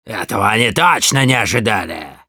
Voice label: cheers, ru; Demoman

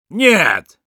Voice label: Sniper no, ru

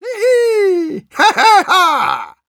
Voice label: Engineer battle cry

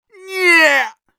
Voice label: negative vocalization; Scout; "Неее"; разведчиком

